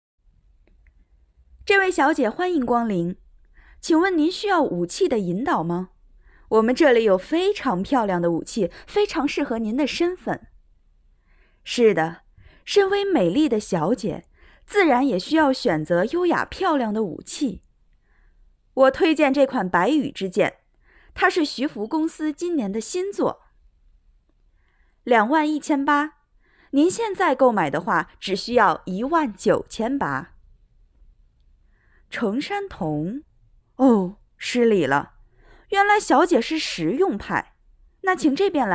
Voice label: 读文章

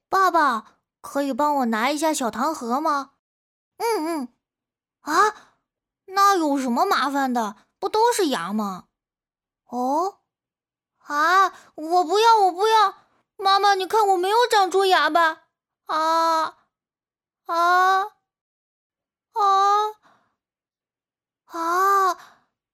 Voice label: 男童

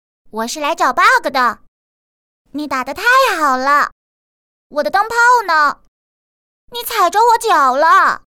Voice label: 捕鱼 背景配音 短语

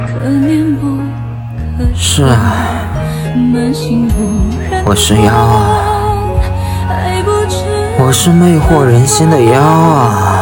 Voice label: 夸张

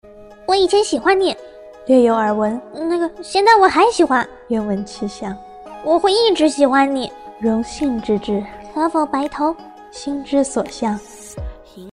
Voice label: 御姐萝莉

